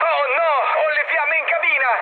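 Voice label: Italian on fire mood med, speech; War Thunder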